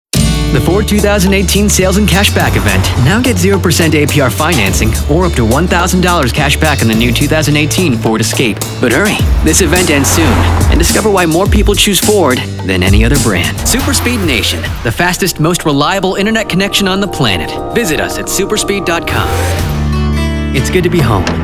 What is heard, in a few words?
Commercial